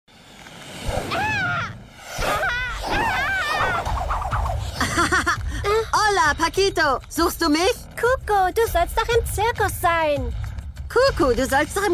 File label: german cartoon animation